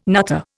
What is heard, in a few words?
"nutter", Indian